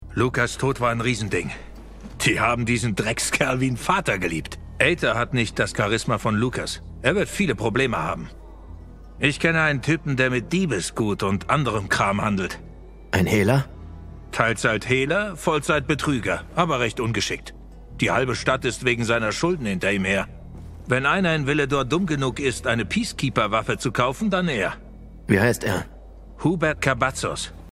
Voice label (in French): voices, jeu video, de; dying light 2